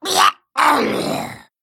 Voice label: male murloc death

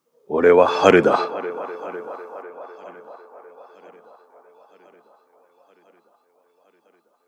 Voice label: omnipotent echo; Ore wa Haru da

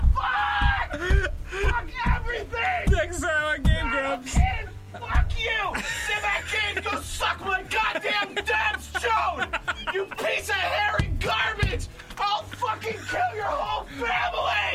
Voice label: battle kid rage

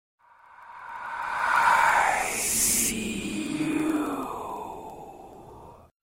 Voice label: eerie ghost voice

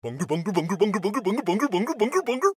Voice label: charge excited

